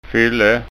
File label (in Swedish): "fylle", skanskaord